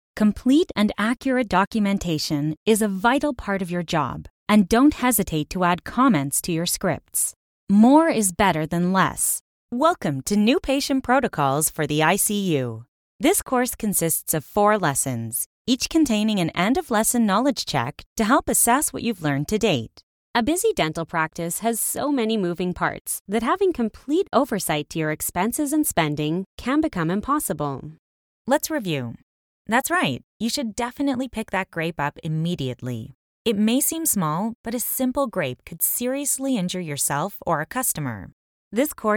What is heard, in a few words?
english canadian e-learning